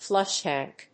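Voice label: "flush tank", English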